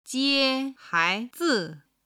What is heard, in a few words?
"jie haizi", chinese